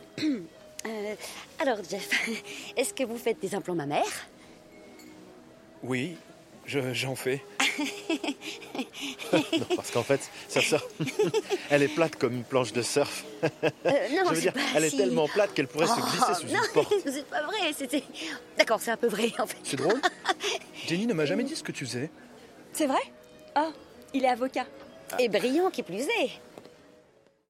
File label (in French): Doublage; Surprise Me